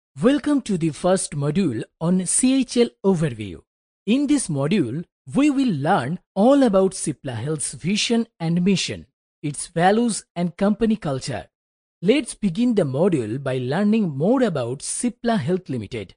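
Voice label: BN AccEn, Bengali accented English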